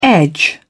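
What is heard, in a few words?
"edge", British English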